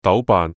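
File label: Cantonese "豆瓣"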